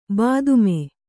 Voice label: "ಬಾತ್ಮಿ", Kannada